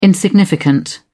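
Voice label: "insignificant", British English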